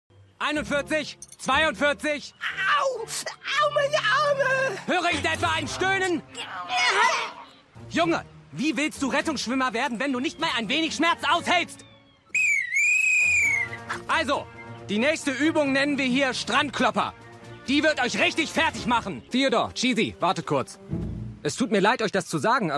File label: Chipmunks; Alvinnn und die Chipmunks